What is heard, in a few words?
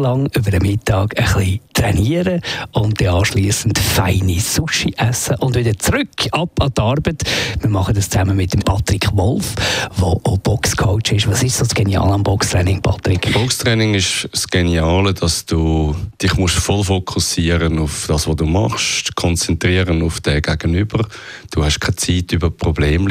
Radio; Wolf; LunchPunch